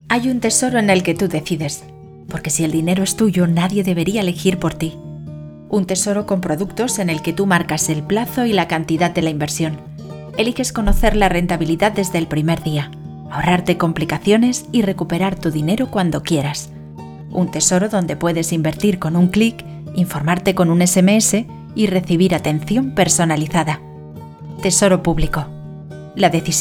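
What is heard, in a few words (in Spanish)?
corporativo España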